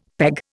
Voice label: "peg", Indian; Dictionary